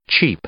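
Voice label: "cheap", English; adjective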